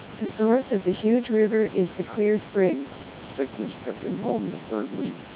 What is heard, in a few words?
noisy English speech at SNR = 10 dB, CODEC2 2400 bps